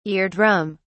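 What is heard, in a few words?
"ear drum", British English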